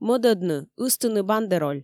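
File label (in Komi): "мӧдӧдны ыстыны бандероль", Komi, female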